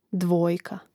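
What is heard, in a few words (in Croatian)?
"dvojka", Croatian; mreznik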